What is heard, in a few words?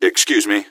excuse me, gasmask; scmod